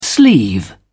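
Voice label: "sleeve", British English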